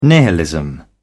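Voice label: "nihilism", British English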